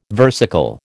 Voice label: "versicle", American

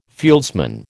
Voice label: "fieldsman", American

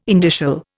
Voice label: "indicial", Indian

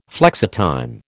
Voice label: "flexitime", American

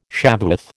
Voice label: "Shavuoth", American

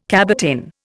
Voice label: "cabotin", Indian

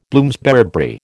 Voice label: "Bloomsbury", American

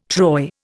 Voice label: "troy", Indian

